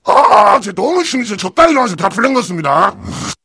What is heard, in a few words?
heavy cheers